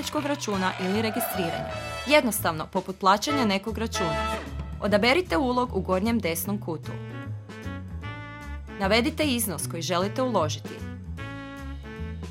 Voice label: Croatian Voiceover Casino; No Account Casino